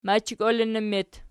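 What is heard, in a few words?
"machikolinnib" locative, Archi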